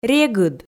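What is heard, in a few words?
"регыд", Komi, female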